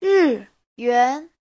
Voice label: "ri4yuan2", Chinese; Giáo trình Hán ngữ